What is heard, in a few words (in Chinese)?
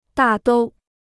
"大都", Chinese